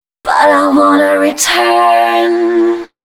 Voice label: female 130, Dance Ultra Voice, short hooks